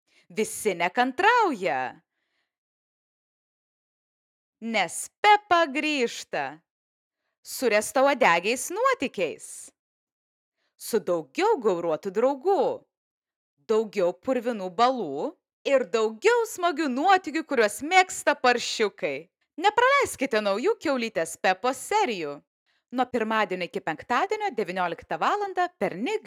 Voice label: female, Lithuanian and Russian, Lithuanian promo; peppa pig; nick jr